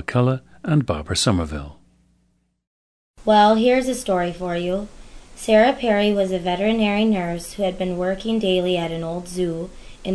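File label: minnesota, International Dialects of English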